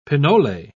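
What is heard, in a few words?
"pinole", British English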